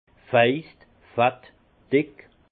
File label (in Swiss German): "faist", alsace; vin; gras